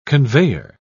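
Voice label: "conveyer", British English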